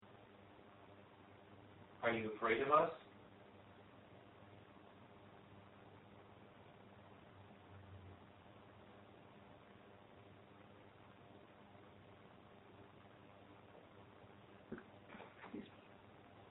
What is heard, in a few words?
two possible evps; elsings 2nd hand shop